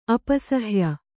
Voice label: "ಅಪಸಹ್ಯ", Kannada

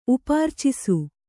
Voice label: "ಉಪಾರ್ಚಿಸು", Kannada

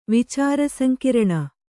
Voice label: "ವಿಚಾರಸಂಕಿರಣ", Kannada

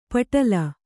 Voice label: "ಪಟಲ", Kannada

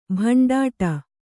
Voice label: "ಭಂಡಾಟ", Kannada

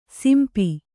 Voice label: "ಸಿಂಪಿ", Kannada

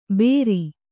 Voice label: "ಭೇರಿ", Kannada

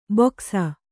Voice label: "ಬೊಕಸ", kannada

